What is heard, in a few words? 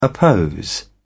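"oppose", British English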